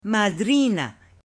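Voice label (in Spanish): "madrina", Spanish, female; AppLenguaje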